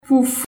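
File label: Haitian Creole; pouf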